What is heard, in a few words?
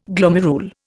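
"gloom", Indian; Dictionary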